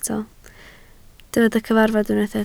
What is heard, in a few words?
"cyfarfod", Welsh